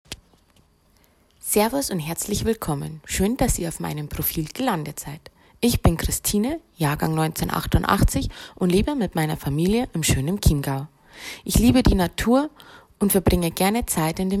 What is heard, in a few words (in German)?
Stimmprobe freie Rednerin